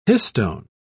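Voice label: "histone", British English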